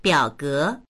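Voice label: "biao ge", Mandarin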